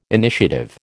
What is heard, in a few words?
"initiative", American